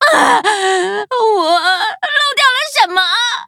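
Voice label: defeat, Traditional Chinese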